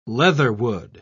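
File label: "leatherwood", English, British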